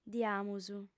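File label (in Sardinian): "diamus", female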